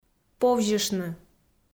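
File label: "повзьыштны", Komi, female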